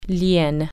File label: "hyena", French